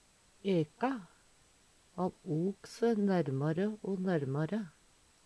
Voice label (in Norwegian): "eka", Numedalsmål